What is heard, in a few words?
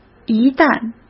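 "一旦", Mandarin Chinese; chinese-flashcards; hsk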